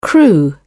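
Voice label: "crew", British English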